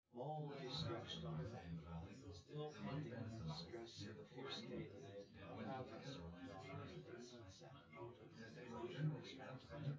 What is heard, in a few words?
male synth conv